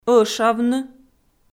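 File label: "ӧшавны", Komi, female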